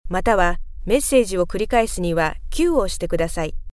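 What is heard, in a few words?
Japanese, female, interactive voice response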